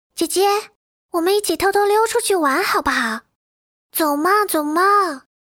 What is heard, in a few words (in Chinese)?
萝莉音古风